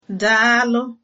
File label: meet, igbo